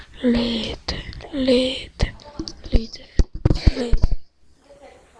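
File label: "lead", spoken word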